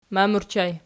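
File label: "mam" ergative plural, Archi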